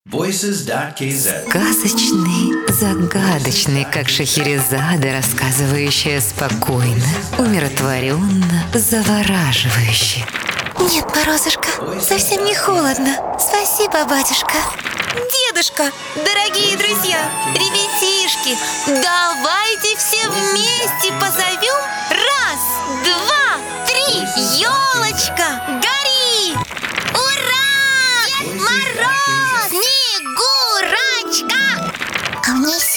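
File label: Russian, character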